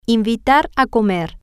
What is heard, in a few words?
"invitar a comer", spanish